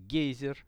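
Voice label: "geyser", Russian; гейзер; nature; priroda; RUSSIAN VISUAL DICTIONARY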